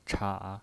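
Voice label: "cha", Mandarin Chinese, third tone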